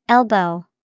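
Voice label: "elbow", English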